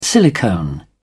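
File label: "silicone", British English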